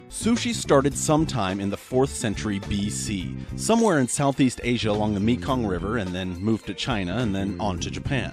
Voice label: foreigner